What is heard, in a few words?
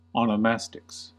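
"onomastics", American English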